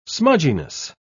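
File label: "smudginess", British English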